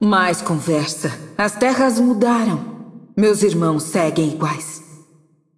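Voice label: voice over, Brazilian Portuguese; Yunara Intro; bloom minigame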